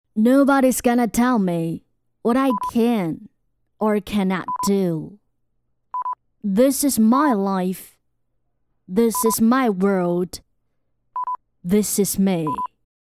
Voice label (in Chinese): 英文 试音